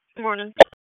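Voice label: Raleigh Police Special Operations Tactical, p25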